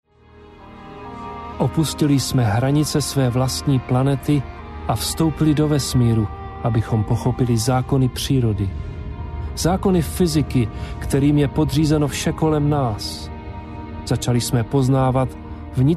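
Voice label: Czech, male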